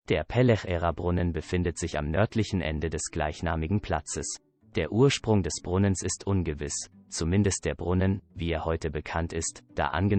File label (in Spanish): ALEMAN; PLAZA FUENTE PELLEJERA